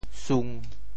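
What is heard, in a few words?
"sung1", Teochew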